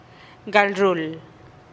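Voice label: "gal ruul", Teotitlán del Valle Zapotec; leer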